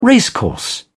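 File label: "racecourse", English, uk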